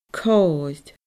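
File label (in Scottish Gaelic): "coir", Scottish Gaelic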